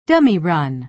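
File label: "dummy run", American English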